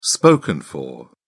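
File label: "spoken for", British English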